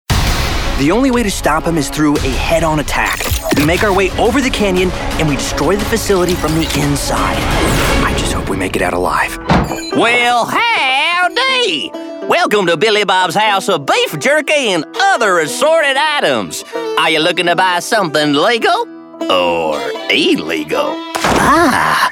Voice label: english american animation

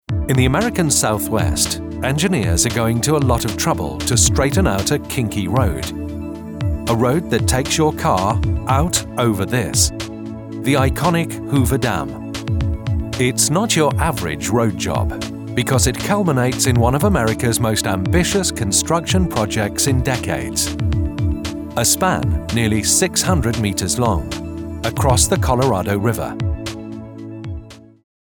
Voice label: english british documentaries

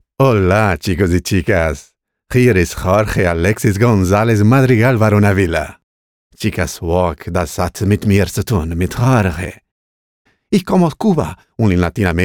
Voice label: portuguese impersonations

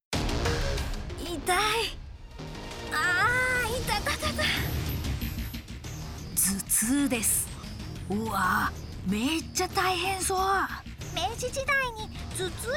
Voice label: japanese animation

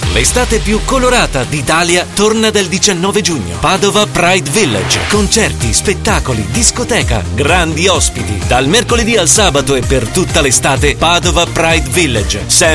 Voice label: italian promos

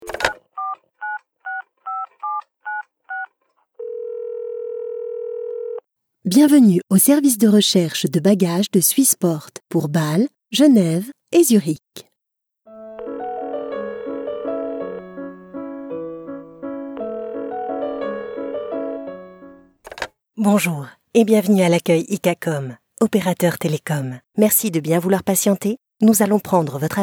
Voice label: french ivr; French voice over actress native from France, neutral accent.

